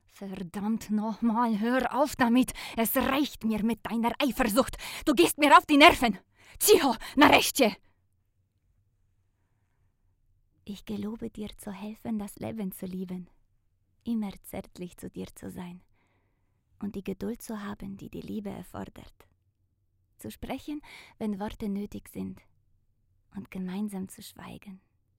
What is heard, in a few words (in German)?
AKZENT deutsch polnisch; LOFT VOICES